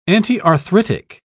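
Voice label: "antiarthritic", English, British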